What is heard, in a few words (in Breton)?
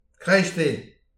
"kreisteiz", distagadur; petit precis de prononciation